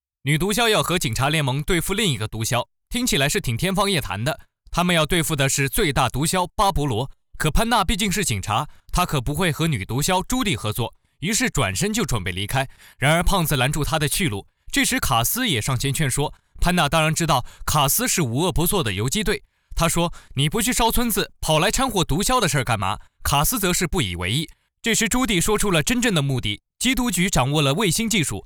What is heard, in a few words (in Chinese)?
电影解说